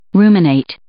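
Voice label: "ruminate", English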